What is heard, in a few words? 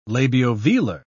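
"labiovelar", British English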